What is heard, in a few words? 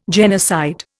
"genocide", Indian